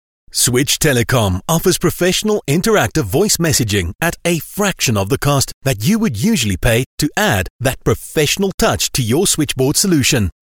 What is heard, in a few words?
Professional Male Recording; Switch Telecom; professional South African voice recording (IVR) service